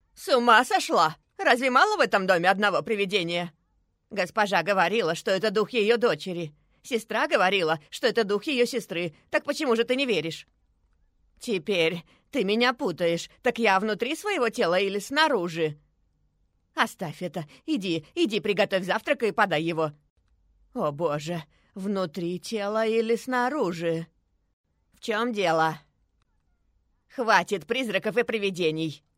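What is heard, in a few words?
russian female character; voice demos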